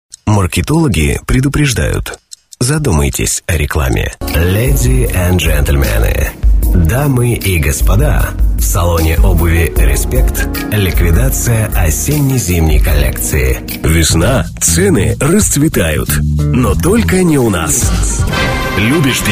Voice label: dictory men